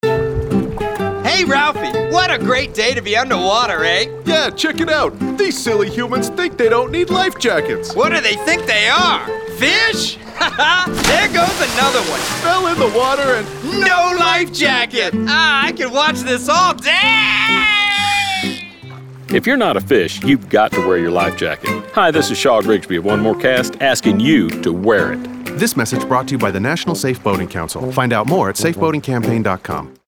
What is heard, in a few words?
Radio; Silly Humans